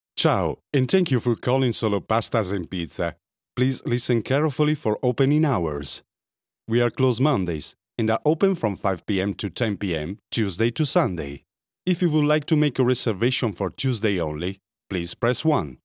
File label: RESTAURANT IVR PHONE ENGLISH ITALIAN ACCENT